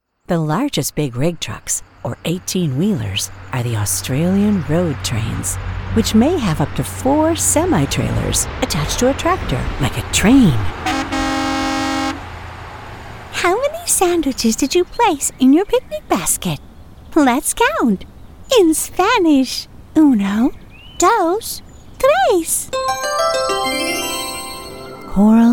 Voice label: k12 eLearning